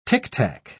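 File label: "ticktack", British English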